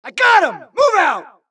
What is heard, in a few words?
supress, dialogue Allies; mohaa; Mission 2